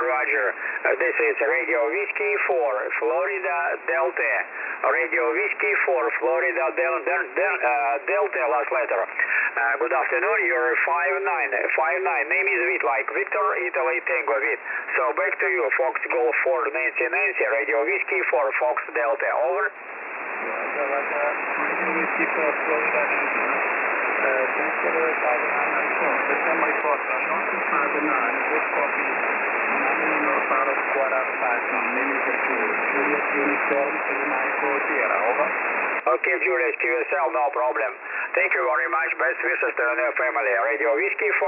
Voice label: qso